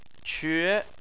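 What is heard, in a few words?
"que", Chinese, tone 2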